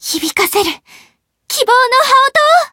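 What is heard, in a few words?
Voice limit break, Japanese; mayu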